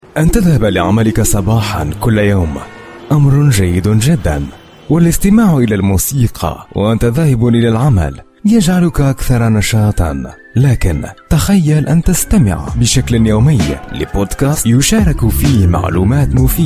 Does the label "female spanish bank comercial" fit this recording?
No